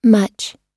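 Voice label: "much", American English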